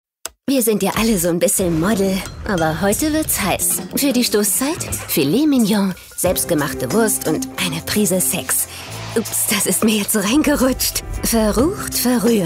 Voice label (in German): Dynamisch